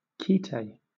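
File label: "quite", British English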